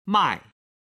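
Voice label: "mai4", Chinese, male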